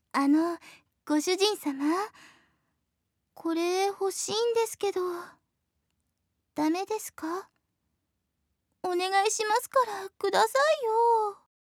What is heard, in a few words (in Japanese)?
おねだりボイス; 鞠音1